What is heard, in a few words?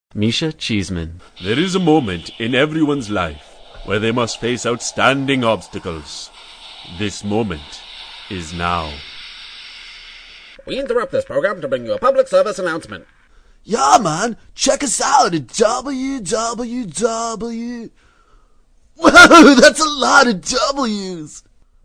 Character